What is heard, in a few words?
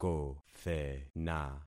"kothena", concat syllables; Affixing experiment